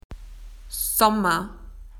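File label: "summer", Australian English; PictureDictionary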